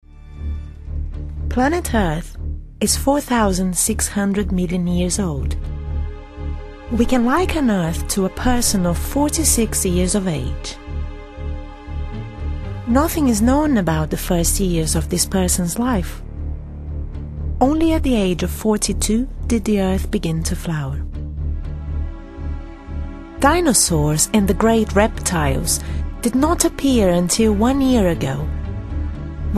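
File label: Brazilian English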